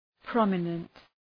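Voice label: "prominent", English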